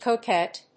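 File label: "coquette", English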